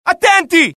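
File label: alert, Italian; mohaa; dialogue; sighted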